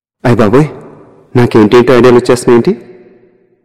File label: Dialogue Ringtone; Okkadu